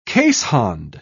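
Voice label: "keeshond", British English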